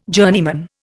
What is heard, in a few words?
"journeyman", Indian